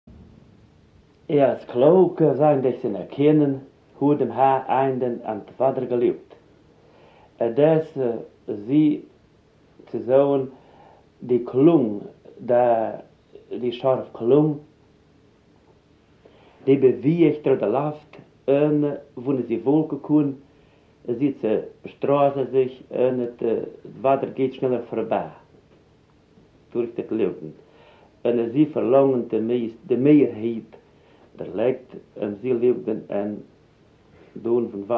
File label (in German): sprachaufnahmen, siebenbuerger; die wetterglocke; wassid